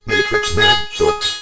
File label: comm voice